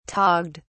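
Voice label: "togged", British English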